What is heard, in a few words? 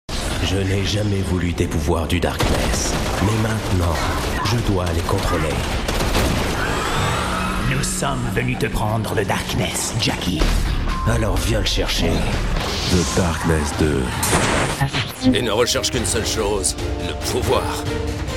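French video game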